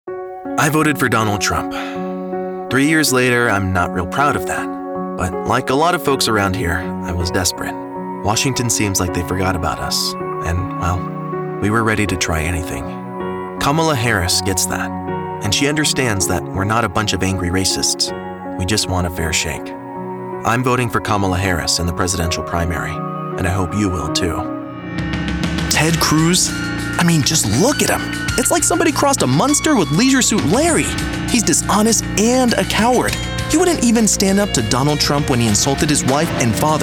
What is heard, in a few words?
Political, en-NZ